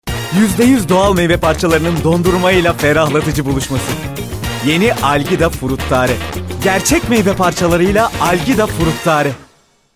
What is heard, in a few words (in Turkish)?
reklam ilgicekici; algida fruttare